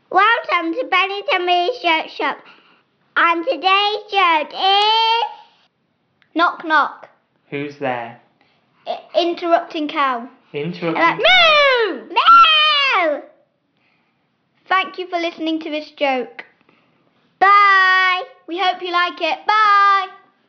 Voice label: A very loud knock knock joke

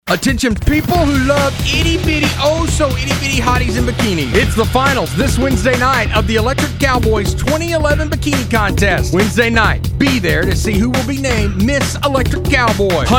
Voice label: commercial

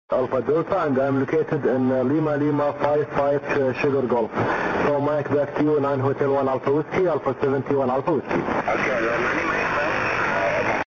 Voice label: 6m ssb

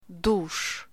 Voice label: "Shower" In Russian

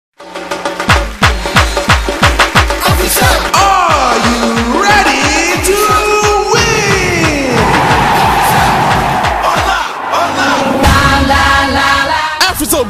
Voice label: radio advert; world cup play win

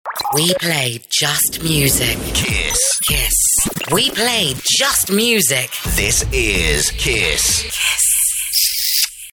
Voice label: station voice; Kiss FM 2012

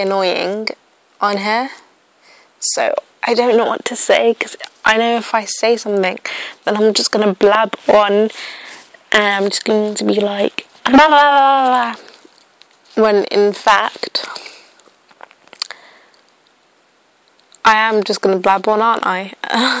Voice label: irritating voice

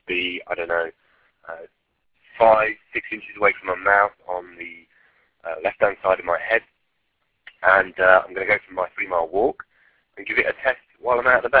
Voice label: testing bluenext b2 bluetooth headset; inside; spinvox

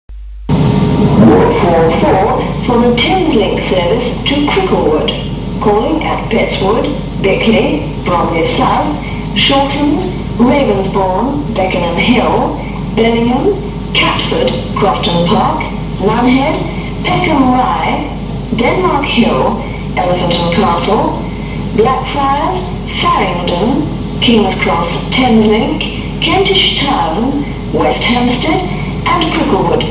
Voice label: Orpington pre-recorded platform announcement

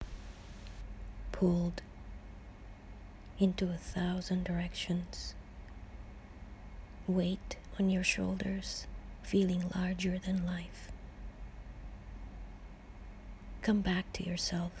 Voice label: close the door whispering spoken word

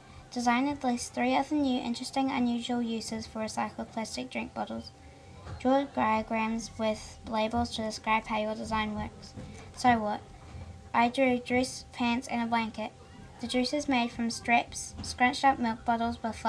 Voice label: reading

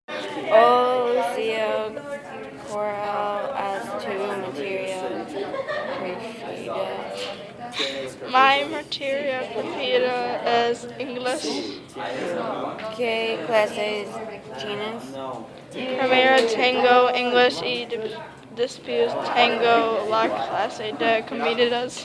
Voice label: spanish

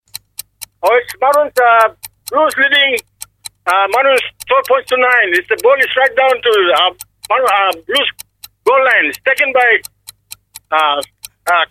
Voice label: commentator promo; Yumi FM

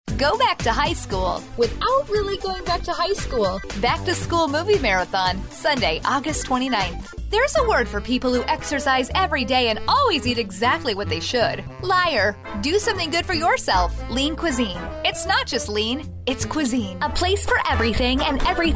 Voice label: commercial work

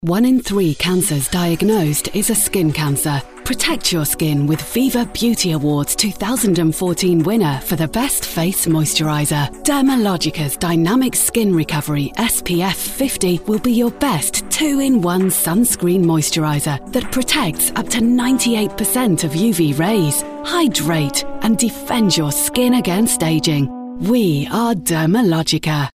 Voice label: commercial; dermalogica

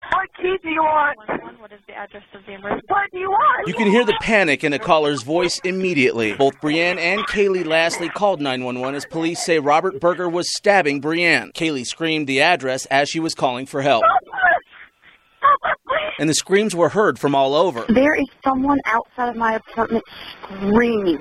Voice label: 911 calls; describe the horror of a salt lake city stabbing

